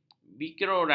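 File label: "birokrat", Malay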